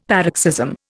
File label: "paroxysm", Indian; Dictionary